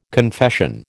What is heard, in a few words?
"confession", American